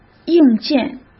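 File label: "硬件", Mandarin Chinese; hsk; chinese-flashcards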